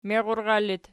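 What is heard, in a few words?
"meirural" locative, Archi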